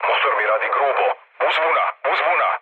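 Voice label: Serbian damaged engine mood low, speech; War Thunder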